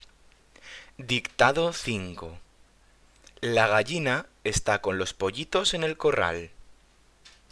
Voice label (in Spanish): Dictado